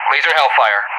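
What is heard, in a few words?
"Laser Hellfire", English; Weapon; DCS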